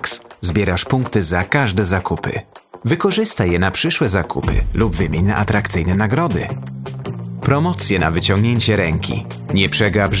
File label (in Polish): PL App Commercial Aplikacja Sklepu